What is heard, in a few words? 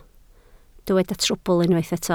"trwbl", Welsh